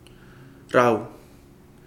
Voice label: leaf, Kapingamarangi